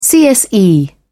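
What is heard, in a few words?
"cse", British English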